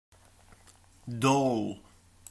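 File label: "doll", British English; PictureDictionary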